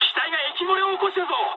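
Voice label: damaged water mood high, speech japanese; War Thunder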